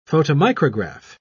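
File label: "photomicrograph", British English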